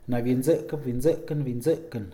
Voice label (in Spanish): "respetar", Mixe, hombre; Sociales